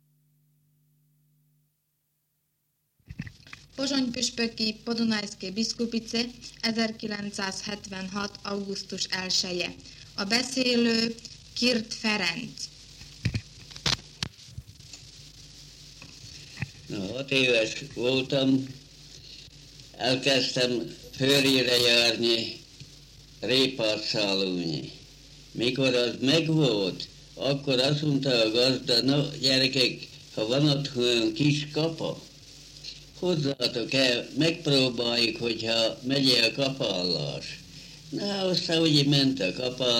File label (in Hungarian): nyelvjaras gyujtesek